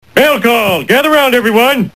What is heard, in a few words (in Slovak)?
sms hlásky en